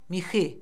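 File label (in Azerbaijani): "mixi", Azerbaijani; Orfoqrafiya Lüğəti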